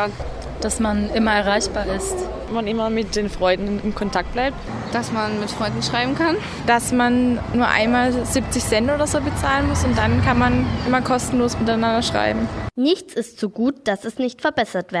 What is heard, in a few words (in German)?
Umfrage WhatsApp; Fritz-Erler-Schule Pforzheim